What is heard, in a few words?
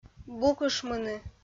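"букышмыны", Komi-Zyrian, female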